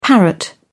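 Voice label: "parrot", British English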